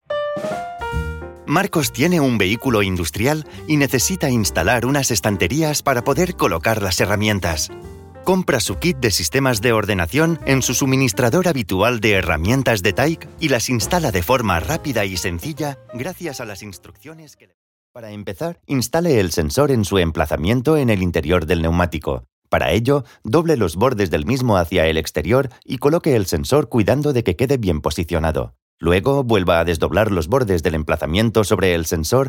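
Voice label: European Spanish, E-learning; GoLocalise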